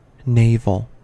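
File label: "navel", English, American accent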